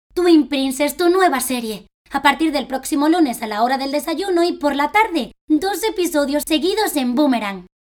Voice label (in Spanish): voz, actriz de doblaje; publicidad